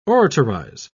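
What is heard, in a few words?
"oratorize", English, British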